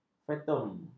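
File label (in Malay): "festun", Malay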